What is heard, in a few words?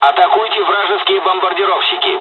bomber attack, dialogs russian; War Thunder